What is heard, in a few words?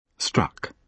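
"struck", British English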